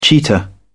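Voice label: "cheetah", British English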